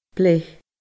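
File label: "bleith", Scottish Gaelic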